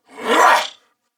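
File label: Throw Grenade Male, en; Battle; Corpus Venus; Warframe; CorpusVenusMaleB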